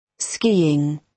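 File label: "skiing", British English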